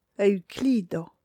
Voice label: "euxklido", esperanto; vortoj